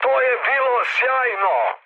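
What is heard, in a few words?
Serbian trick mood med; War Thunder; speech srb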